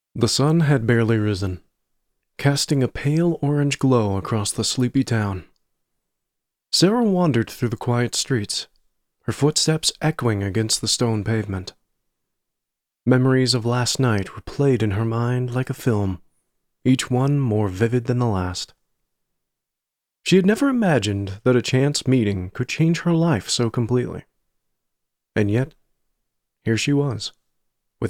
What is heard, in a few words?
Narrative Fiction; The Sun Had Barely Risen